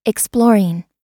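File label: "exploring", American English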